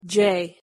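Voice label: "j" pronunciation English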